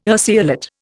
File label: "urceolate", Indian